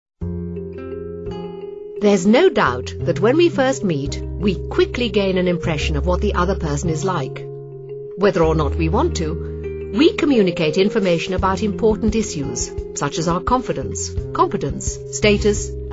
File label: documentary, readings